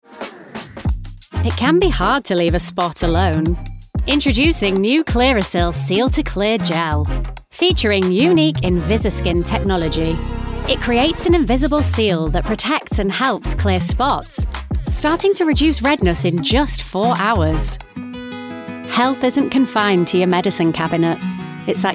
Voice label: Commercial MegaMix